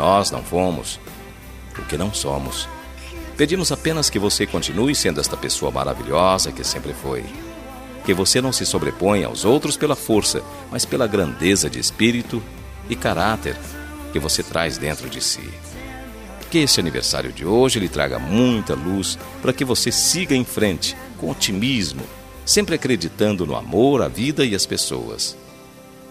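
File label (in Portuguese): aniversario filha distante plural masculino, telemensagem; aniversario filha filho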